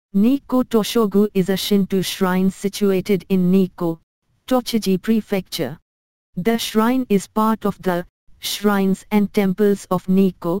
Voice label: English India